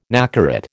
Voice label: "nacarat", American

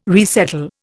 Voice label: "resettle", Indian

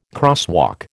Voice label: "crosswalk", American

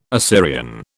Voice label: "Assyrian", British

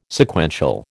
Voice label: "Sequential", American

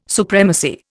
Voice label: "supremacy", Indian

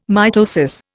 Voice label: "mitosis", Indian

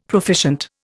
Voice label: "proficient", Indian; Dictionary

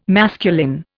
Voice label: "masculine", Indian

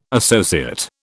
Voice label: "associate", British

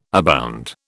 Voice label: "abound", British

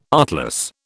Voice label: "artless", British

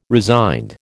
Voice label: "resigned", American

